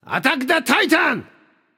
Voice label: vox attack m; smite; danzaburou

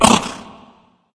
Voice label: surprise; smite; anubis

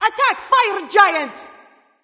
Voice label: vox attack; smite; izanami

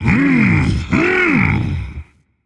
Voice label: rage; chaac

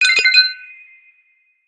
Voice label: Defeat Tantrum; smite; god cupid skin13

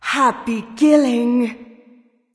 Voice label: vox emote; smite; izanami

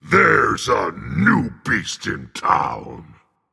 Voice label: taunt directed dragon; fafnir; jormungandr

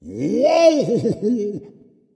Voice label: fear dragon; fafnir

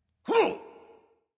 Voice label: victory, vox; smite; voicepack; hercules

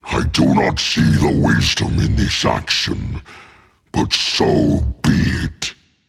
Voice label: purchase not recommended rage; chaac

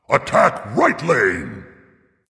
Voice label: vox attack dragon; smite; fafnir

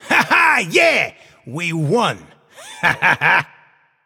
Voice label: nv yay; cupid

